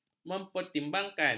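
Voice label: "mempertimbangkan", Malay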